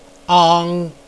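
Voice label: "ang", Mandarin Chinese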